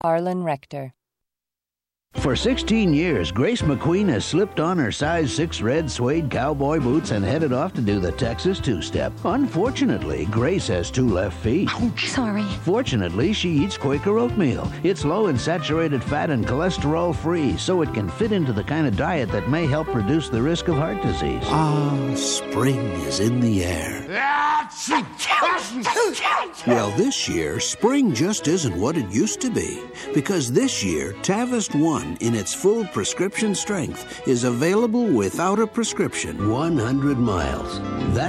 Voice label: Commercial

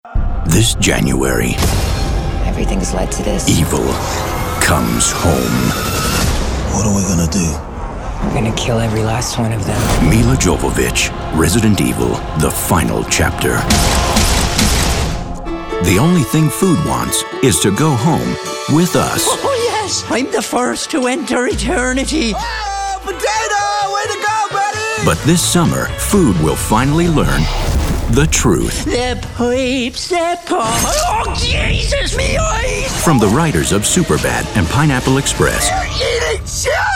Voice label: Trailer Demo

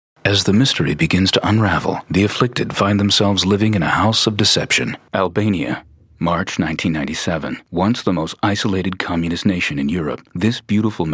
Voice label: narration

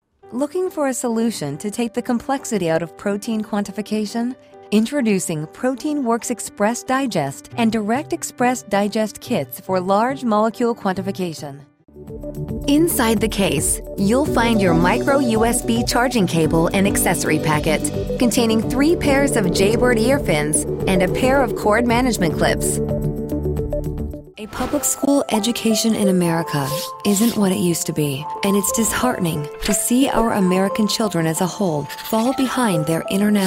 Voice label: Corporate Narration

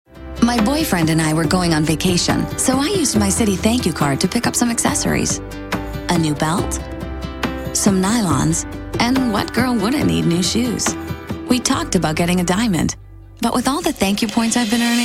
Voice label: Commercials; Citibank

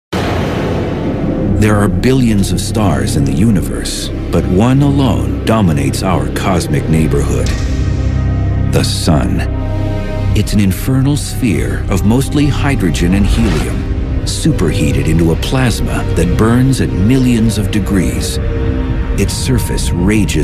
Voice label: Narration

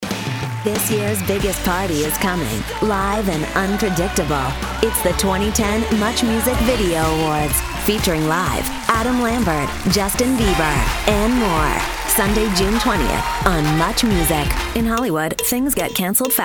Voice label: promo

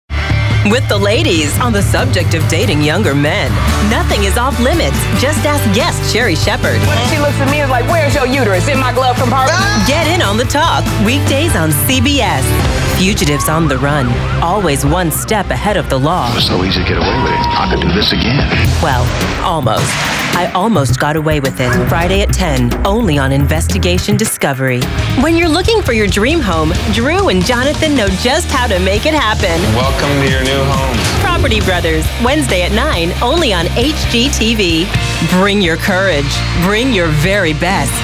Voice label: Promos

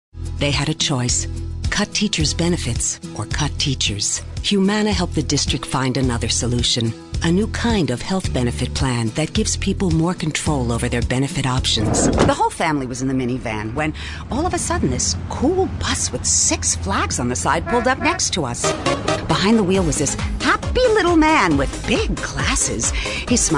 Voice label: commercial

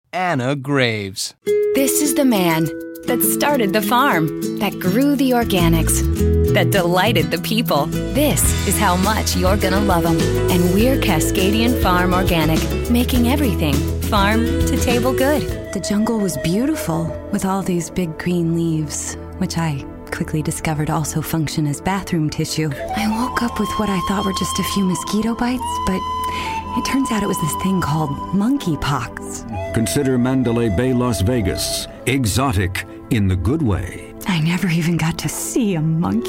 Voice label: commercial

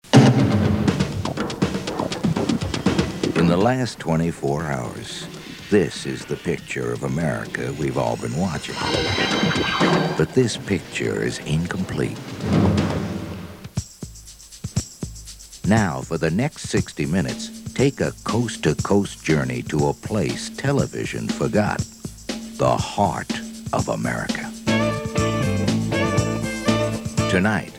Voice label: Narration

